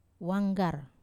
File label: "wanggar", mouse, Wamesa